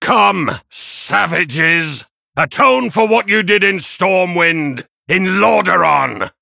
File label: Male Human; Daelin; Mission7 Intro